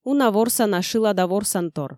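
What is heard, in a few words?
"уна ворсана шылада ворсантор" Komi, female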